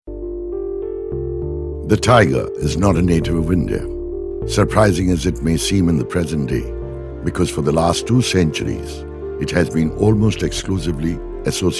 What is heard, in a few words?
Eng; Tiger